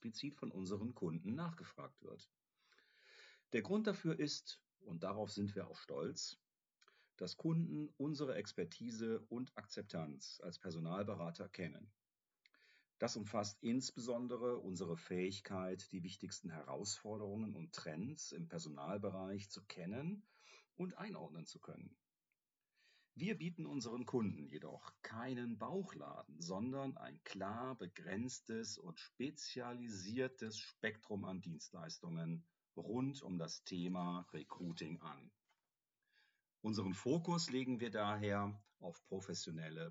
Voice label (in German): Podcast Personalberatung